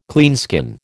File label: "cleanskin", American